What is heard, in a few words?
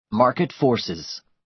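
"market forces", American English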